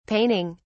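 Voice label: "paining", British English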